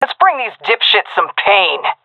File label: round start, fem; vo; agents; Source Model Packs; Counter Strike Model Pack; CS2